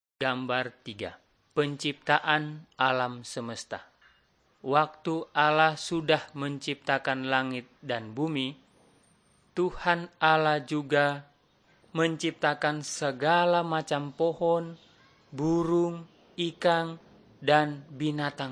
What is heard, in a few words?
malay manado; good news